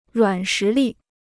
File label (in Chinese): "软实力", Chinese